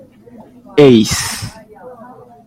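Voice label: "ace", Japanese, male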